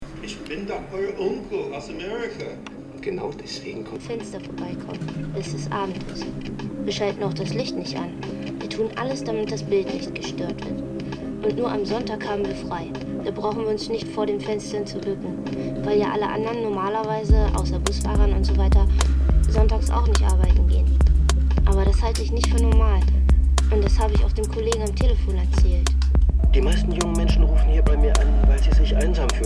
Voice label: German Radio; Travel